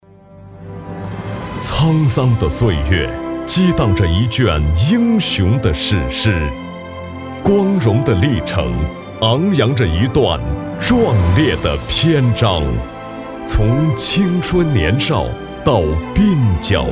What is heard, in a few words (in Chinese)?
男 旁白; 峥嵘岁月